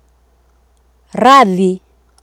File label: "radhi", Swahili